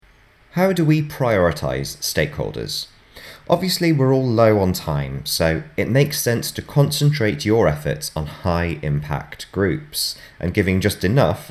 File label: Narration; Radius